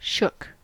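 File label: "shook", American English; pronunciations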